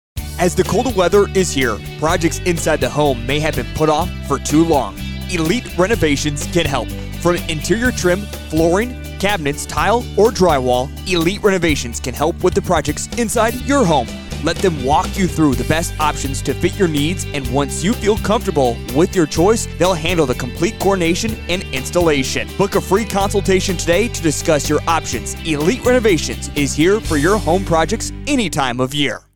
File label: Renovations Winter Commercial; Elite Renovations